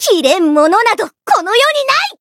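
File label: Voice variable chant, Japanese; otoha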